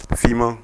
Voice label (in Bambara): "fima", Bambara; couleurs; Mots Bambara